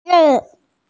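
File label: "yu3san3" Mandarin Chinese, female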